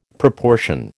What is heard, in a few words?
"proportion", American